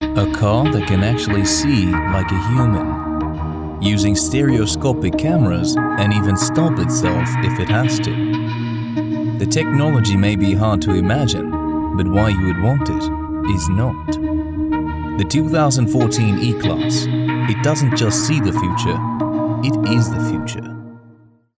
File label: voice actor; VoiceBunny; English - England - Male